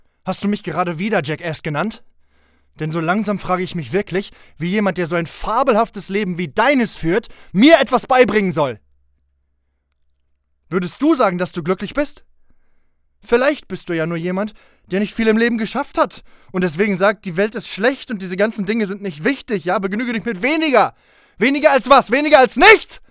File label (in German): Sprechprobe Emotion Wut